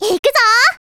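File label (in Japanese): "いーくぞお", Japanese; Voice&Breath; Vocaloid 6.5.1; Otomachi; VOCALOID6 AI OtomachiUna Spicy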